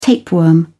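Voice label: "tapeworm", British English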